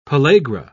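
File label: "pellagra", English, British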